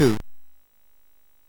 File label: Bouncy Balls speech, MOS 6581